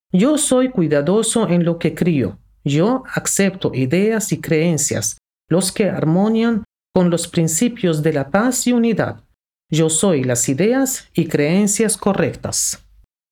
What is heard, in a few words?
Spanish Female; I Am Correct Ideas and Beliefs